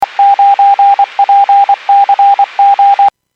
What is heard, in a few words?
Skywarn 146.67; Stevens Point